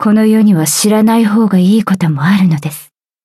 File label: upgrade, ja; cookierunwiki